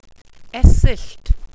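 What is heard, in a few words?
"esyllt", welsh pronunciations; Wales/welsh names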